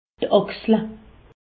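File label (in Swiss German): "achsel", Alsace, Haut Rhin; medecine; épaule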